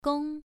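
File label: "gong", Mandarin Chinese, first tone, pronunciation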